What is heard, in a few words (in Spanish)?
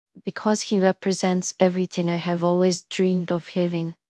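Voice Acting es F, Spanish, female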